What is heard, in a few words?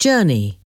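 "journey", English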